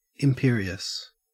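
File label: "imperious", Australian English; pronunciations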